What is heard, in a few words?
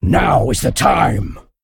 Male Dragon Attack